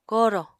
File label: "korò", Apatani